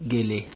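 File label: "gele", Nigerian Pidgin, male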